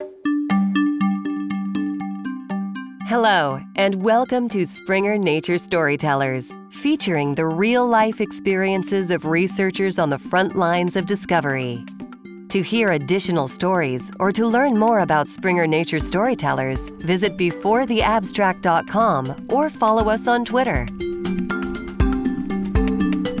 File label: Podcast Live Announcement